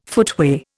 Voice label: "footway", Indian